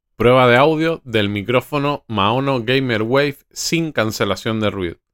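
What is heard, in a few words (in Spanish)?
Maono GamerWave sin cancelacion, micrófono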